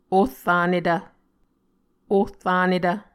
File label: "ohranetv", Muscogee